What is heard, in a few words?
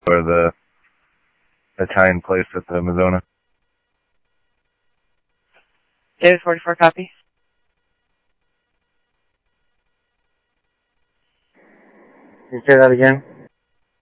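Mesa police department, scanner audio trunk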